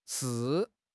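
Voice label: "shi4", Mandarin Chinese; HSK3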